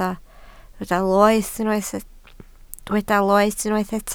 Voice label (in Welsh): "loes", Welsh